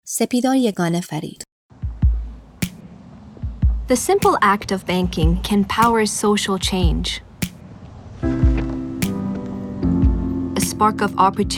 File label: Commercial